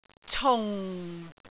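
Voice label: "cung4", Cantonese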